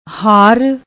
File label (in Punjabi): "har", Punjabi; ਹਾਰ